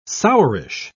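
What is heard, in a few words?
"sourish", British English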